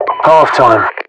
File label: UK halftime; Voiceovers